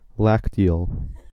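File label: "lacteal", American English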